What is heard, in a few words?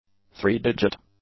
"three-digit", English; adjectives